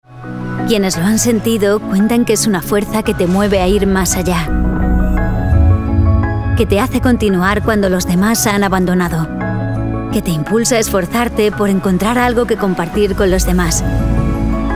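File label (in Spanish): corporativo España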